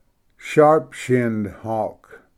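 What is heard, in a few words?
"sharp skinned hawk", English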